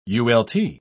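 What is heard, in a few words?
"ult.", British English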